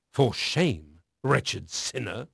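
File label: English male; Pirates Of The Caribbean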